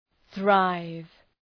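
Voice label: "thrive", English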